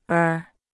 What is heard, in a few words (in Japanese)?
子音Rのみの発音